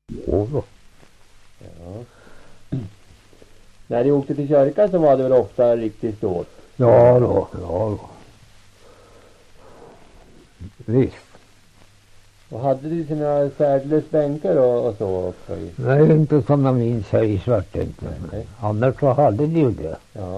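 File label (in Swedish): Svärta Södermanland, dialekter; Folkminnen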